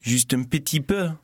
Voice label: conversation